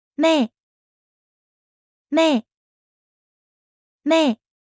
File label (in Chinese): "妹", mandarin; Traditional Chinese